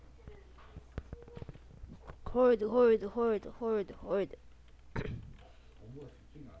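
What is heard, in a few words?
"hold", spoken word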